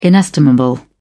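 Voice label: "inestimable", British English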